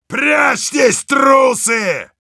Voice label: battle cry, ru; Heavy

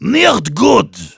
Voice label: negative vocalization, de; Heavy

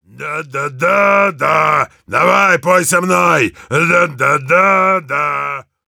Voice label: Heavy cheers, ru

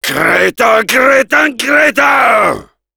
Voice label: jeers; Heavy; fr

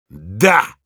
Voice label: yes, ru; Heavy

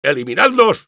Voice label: battlecry es; Engineer

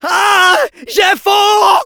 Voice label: auto on fire fr

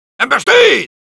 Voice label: Soldier battlecry es

